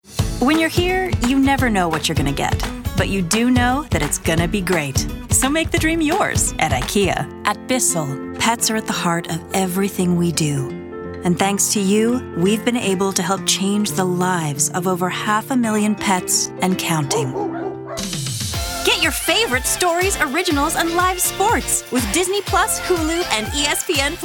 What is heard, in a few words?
women commercial; Soulful, spirited, and confident, with warmth, versatility, and extensive range.